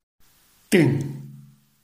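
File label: "ding", Chinese, tone 4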